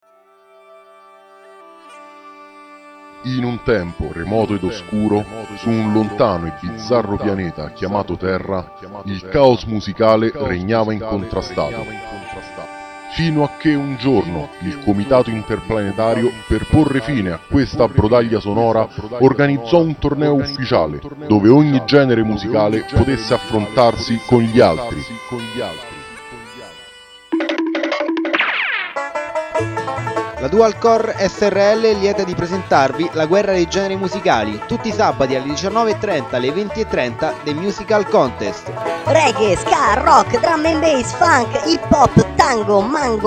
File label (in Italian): spot; musical contest